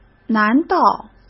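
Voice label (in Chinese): "难道", Mandarin Chinese; hsk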